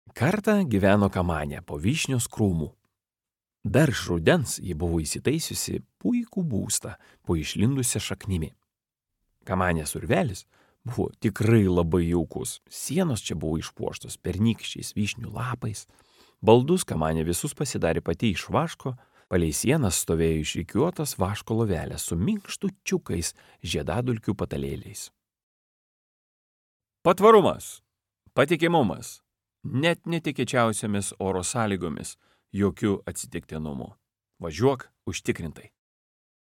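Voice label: Lithuanian M Narration, male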